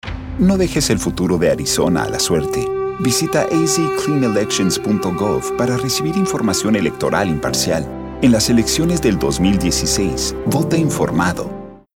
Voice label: Political, Clean Election, Spanish

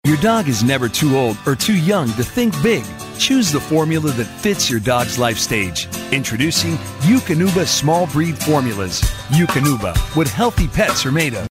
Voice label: friendly genuine

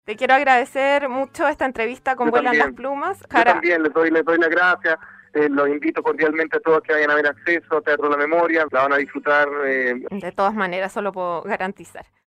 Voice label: Interview

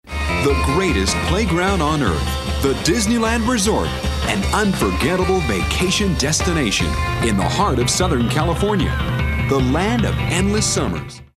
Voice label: upbeat

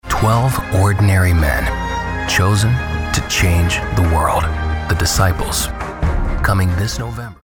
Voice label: Television Promo

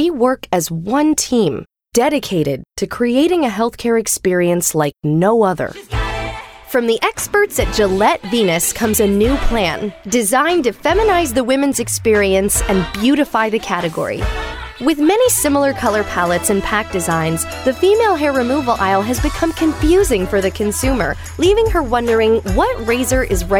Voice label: Corporate

